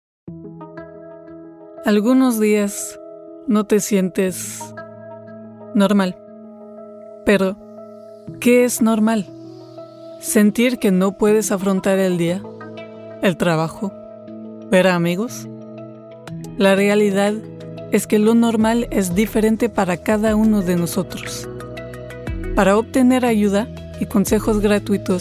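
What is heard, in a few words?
Commercial Spanish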